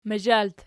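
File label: "mazhalt" nominative, Archi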